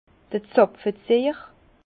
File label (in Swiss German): "zapfeziehjer", Bas Rhin; vin; tire bouchon